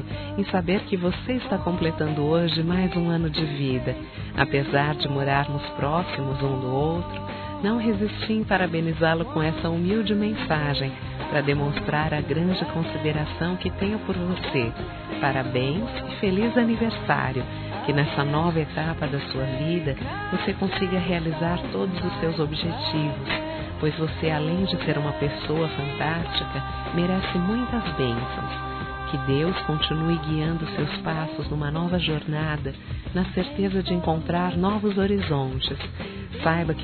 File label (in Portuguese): VIZINHO F; Aniversários; Aniversários diversos; fem